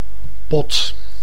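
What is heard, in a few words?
"pot", Dutch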